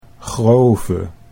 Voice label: "grove", Dutch